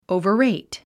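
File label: "overrate", English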